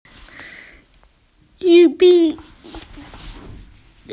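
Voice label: "corn", Mandarin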